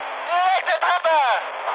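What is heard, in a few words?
German bomb success mood high, speech; War Thunder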